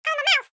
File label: cartoon mouse says im a mouse